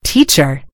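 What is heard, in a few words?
"teacher", British English; gcompris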